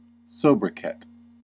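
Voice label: "sobriquet", US English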